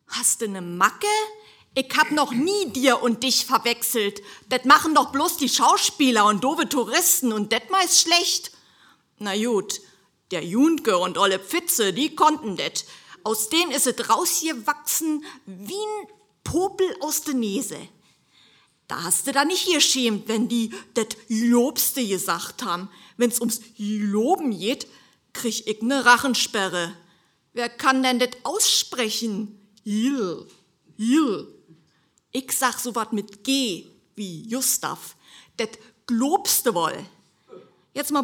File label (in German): Mundart